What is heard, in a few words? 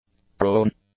"prone", english; adjectives